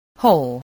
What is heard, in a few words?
"hole", English, spelling